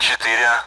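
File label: Speech, Russian, AWACS; Digits; DCS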